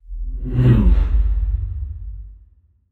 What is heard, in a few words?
thinking short; aragami